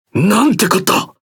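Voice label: Speech Quick Commands Caramba, Japanese; World Of Warships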